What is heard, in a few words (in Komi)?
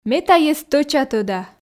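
ме тайӧс стӧча тӧда, Komi, female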